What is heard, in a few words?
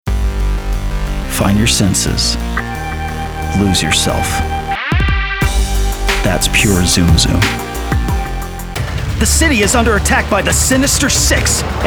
Commercial